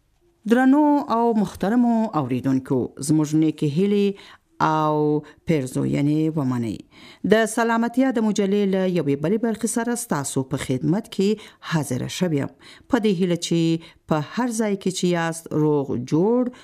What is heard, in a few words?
Pashto, Female, Adult, Senior